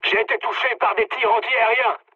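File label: French damaged aa mood med; War Thunder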